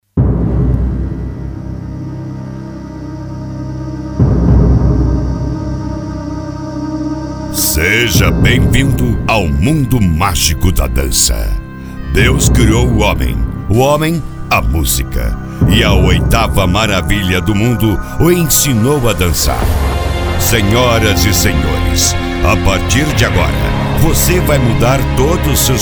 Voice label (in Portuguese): vinheta voz locutor abertura